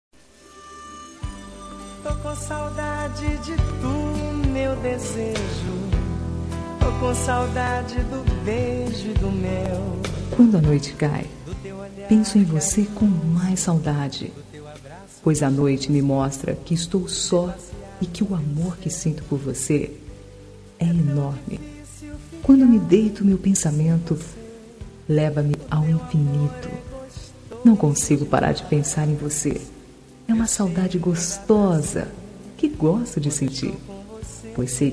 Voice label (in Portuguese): Romântica Com Saudades